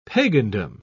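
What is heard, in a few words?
"pagandom", British English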